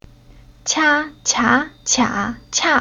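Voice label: "Qia", Chinese syllable; Уроки фонетического блока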